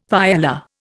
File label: "paella", Indian; Dictionary